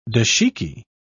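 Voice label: "dashiki", English, British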